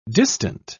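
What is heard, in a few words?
"distant", English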